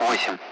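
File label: Speech Sound Russian Common Allied Flight; Digits; DCS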